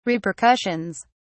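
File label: "repercussions", British English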